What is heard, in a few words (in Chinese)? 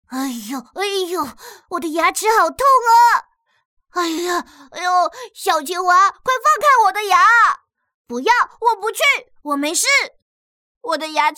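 比较细的男童音台湾腔; 比较细的岁男童音台湾腔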